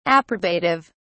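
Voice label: "approbative", British English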